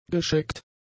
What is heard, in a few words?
"gescheckt", German; adjectives